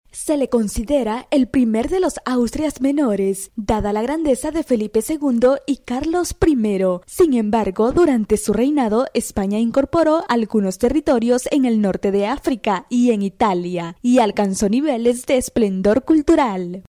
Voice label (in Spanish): locutora hondurena